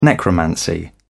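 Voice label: "necromancy", British English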